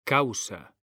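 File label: "causa", Spanish, male; mekanta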